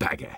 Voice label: Sniper negative vocalization

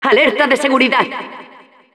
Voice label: announcer security alert, es

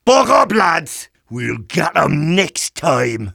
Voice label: Demoman jeers

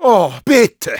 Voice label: negative vocalization, de; Oh bitte.

